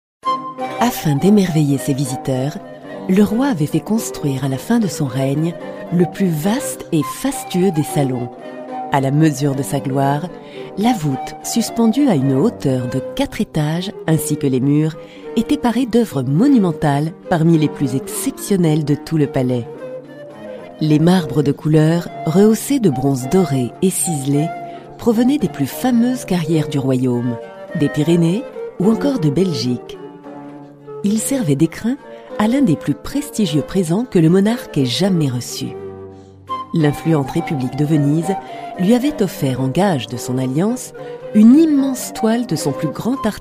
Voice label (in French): AUDIOGUIDE; VERSAILLES